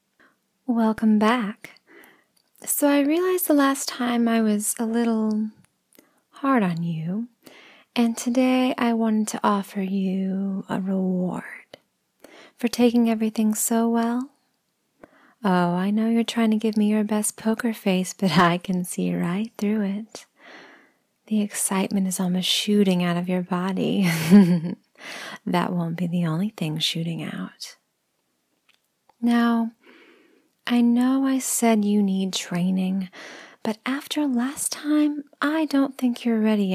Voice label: Whispering, ASMR; F4M; FDom; Body Writing; Triggering; Incentive Before Training

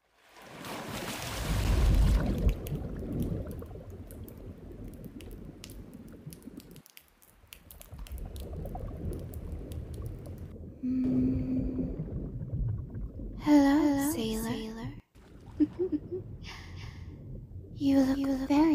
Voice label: ASMR, F4A; A Siren Saves You; Fantasy

